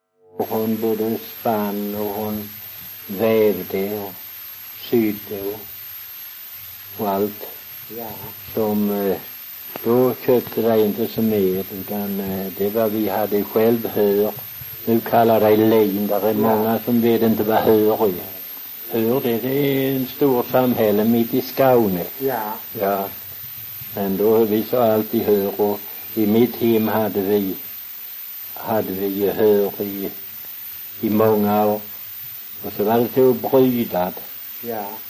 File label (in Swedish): Väsby, dialekter; Folkminnen; Svenska sagor